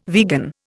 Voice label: "vegan", Indian

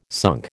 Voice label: "sunk", American

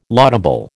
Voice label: "laudable", American

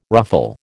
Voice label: "ruffle", American

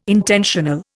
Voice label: "intentional", Indian

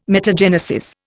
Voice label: "metagenesis", Indian

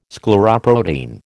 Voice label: "scleroprotein", American

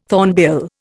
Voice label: "thornbill", Indian

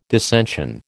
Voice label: "dissension", American

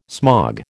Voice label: "smog", American

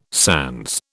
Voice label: "sans", British

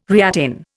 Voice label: "reattain", Indian

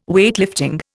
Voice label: "Weightlifting", Indian

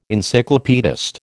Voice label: "Encyclopaedist", American

